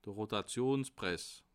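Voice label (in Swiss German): "rotationspresse", Bas Rhin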